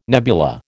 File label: "nebula", American